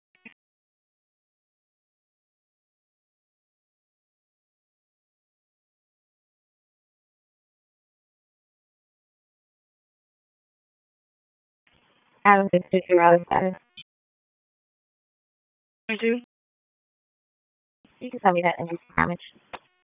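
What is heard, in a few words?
Police Southwest District Dispatch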